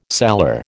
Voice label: "cellar", American